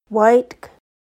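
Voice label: hello, secwepemc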